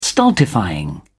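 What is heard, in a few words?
"stultifying", English, uk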